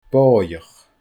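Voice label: "bòidheach", Scottish Gaelic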